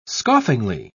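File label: "scoffingly", British English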